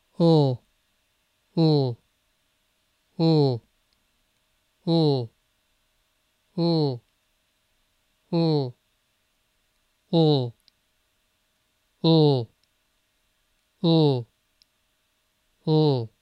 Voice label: dark l, pronunciation

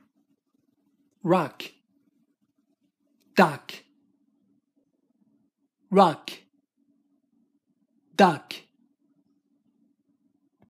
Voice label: ruck duck, pronunciation

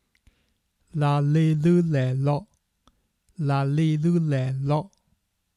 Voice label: la li lu le lo, pronunciation

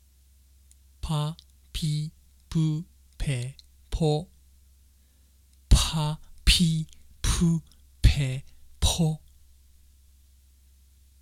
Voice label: pa pi pu pe po pha phi phu phe pho, pronunciation

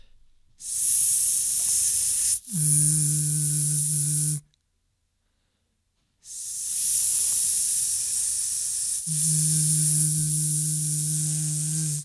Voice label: ssss zzzz, pronunciation

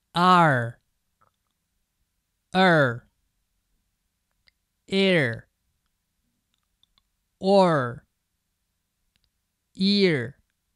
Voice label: r colored vowels, pronunciation

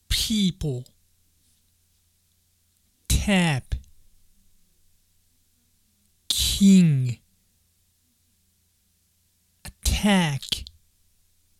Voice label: people tap king attack, pronunciation

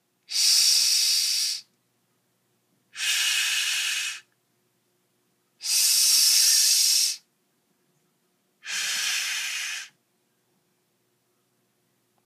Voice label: shhh, english pronunciation

